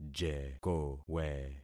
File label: "jekowe", concat syllables; Affixing experiment